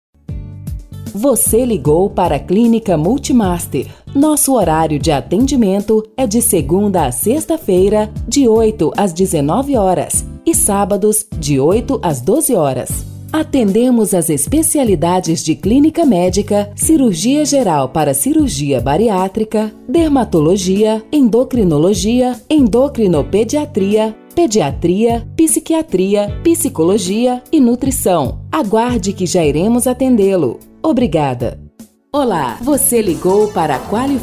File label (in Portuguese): ura locutora; gravacao de ura